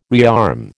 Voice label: "rearm", American